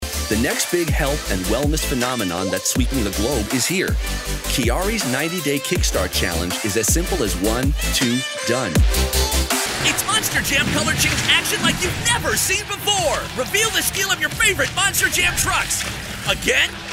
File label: Commercial